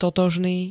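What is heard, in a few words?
"totožný", Slovak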